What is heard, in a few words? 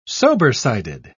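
"sobersided", British English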